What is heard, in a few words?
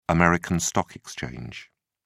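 "american stock exchange", English, British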